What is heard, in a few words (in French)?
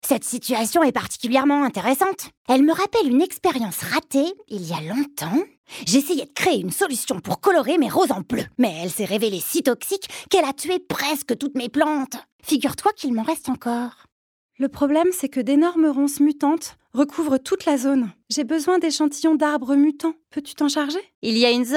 Voix dessins animés medley